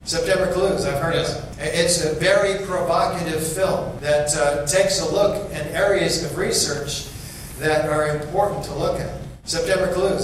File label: Commercial